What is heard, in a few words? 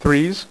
"threes", dealer's speech; PowerPOKER Demo